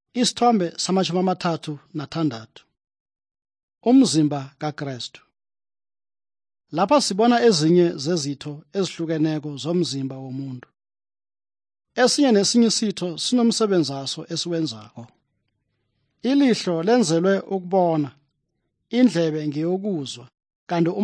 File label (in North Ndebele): good news ndebele southern, drama; bible; for zimbabwe